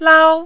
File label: "lao1", Mandarin